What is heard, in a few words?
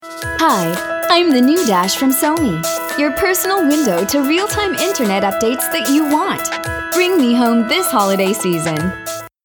Holiday Voiceover; Sony